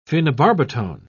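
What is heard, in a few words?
"phenobarbitone", British English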